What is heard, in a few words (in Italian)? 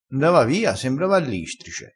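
"sembra l istrice", dialetto crespinese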